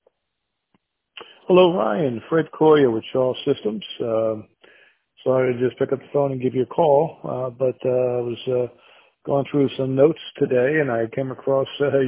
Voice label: voicemail